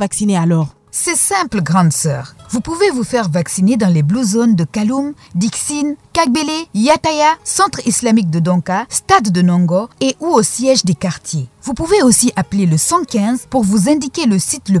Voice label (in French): Spot COVID vaccination francais